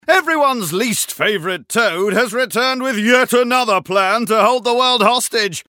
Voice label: narrator line shakes; Danger Mouse - Ultimate